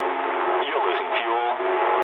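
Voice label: English tank damaged mood low; speech; War Thunder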